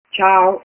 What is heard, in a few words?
hello, ita